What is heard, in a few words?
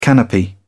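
"canopy", British English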